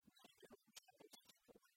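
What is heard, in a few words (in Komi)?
ме велӧдча институтын, Komi, female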